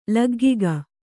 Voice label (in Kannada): "ಲಗ್ಗಿಕ", Kannada